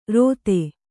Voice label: "ರೋತೆ", kannada

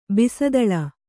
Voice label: "ಬಿಸದಳ", Kannada

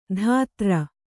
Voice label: "ಧಾತ್ರ", Kannada

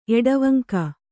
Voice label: "ಎಡವಂಕ", Kannada

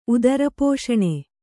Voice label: "ಉದರಪೋಷಣೆ", Kannada; udarapōṣaṇe; noun

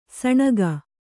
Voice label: "ಸೀನ್ಕೆ", Kannada

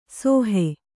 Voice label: "ಸೋಹೆ", Kannada

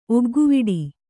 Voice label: "ಒಗ್ಗುವಡೆ", Kannada

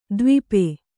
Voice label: "ದ್ವೀಪಿ", Kannada

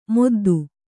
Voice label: "ಮೊದ್ದು", Kannada

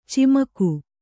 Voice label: "ಚಮಕ", kannada

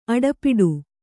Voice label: "ಅಡೆಪಟ್ಟಿ", kannada

